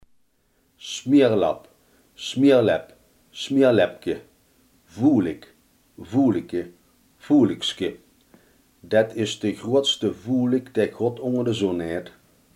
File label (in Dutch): "smeerlap", Limburgs